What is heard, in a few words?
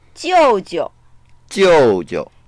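"jiu4jiu", Mandarin Chinese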